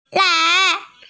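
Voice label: "lai2", Mandarin Chinese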